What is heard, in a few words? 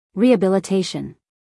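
"rehabilitation", American English female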